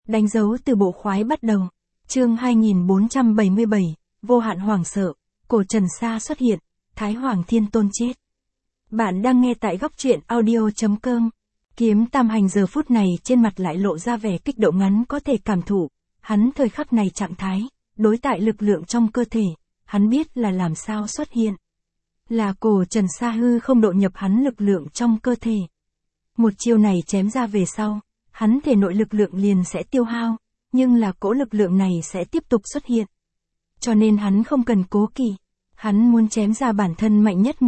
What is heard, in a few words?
vi-VN Standard; danh dau tu bo khoai bat dau